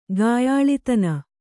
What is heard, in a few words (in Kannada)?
"ಗಾಯಾಳಿತನ", kannada